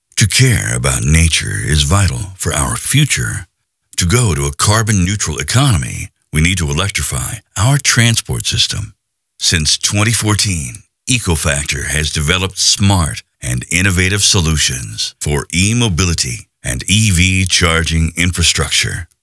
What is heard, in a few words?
dry voice tradeshow